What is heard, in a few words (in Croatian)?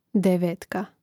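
"devetka", Hrvatski; mreznik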